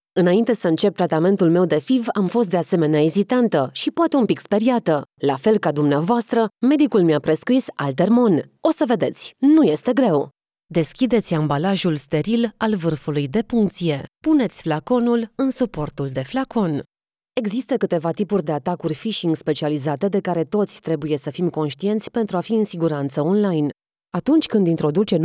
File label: e learning